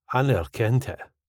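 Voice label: I neer kent it, Scottish English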